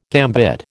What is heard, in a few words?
"gambit", American